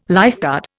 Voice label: "lifeguard", Indian